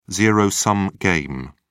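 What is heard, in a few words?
"zero-sum game", British English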